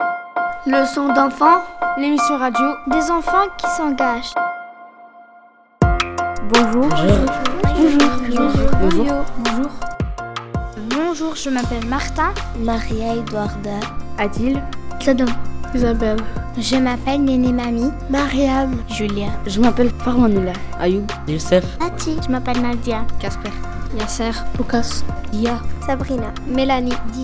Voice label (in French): Message accueil